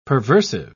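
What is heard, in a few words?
"perversive", British English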